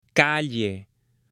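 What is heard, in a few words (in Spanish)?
"calle" Spanish, male; LUGARES; AppLenguaje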